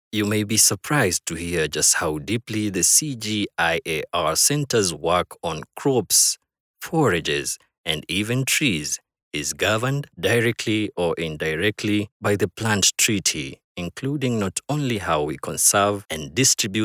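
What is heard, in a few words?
English, African, male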